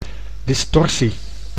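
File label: "distorsie", Dutch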